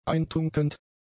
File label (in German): "eintunkend", german; adjectives